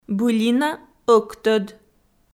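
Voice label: "былина ӧктӧд", Komi-Zyrian, female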